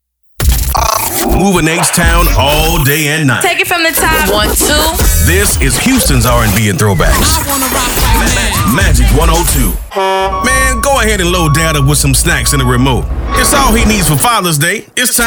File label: radio male urban ac; radio imaging